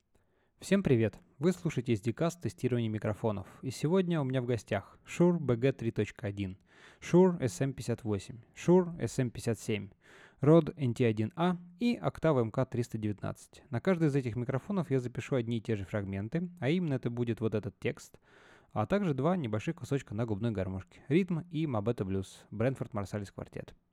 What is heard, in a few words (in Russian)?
Октава МК 319 text